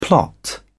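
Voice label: "plot", British English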